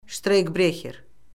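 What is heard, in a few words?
"ştreykbrexer", Azerbaijani; Orfoqrafiya Lüğəti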